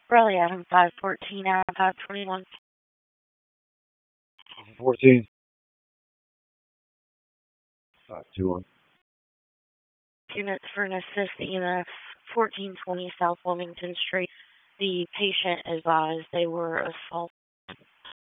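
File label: Raleigh Police Southeast District Dispatch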